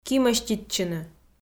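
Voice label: "кимӧститчыны", Komi, female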